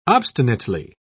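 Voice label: "obstinately", British English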